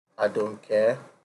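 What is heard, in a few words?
"adoncare", Nigerian Pidgin, male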